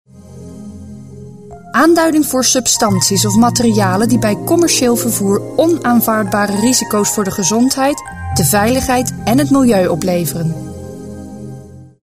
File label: Dutch locutora holandesa; NL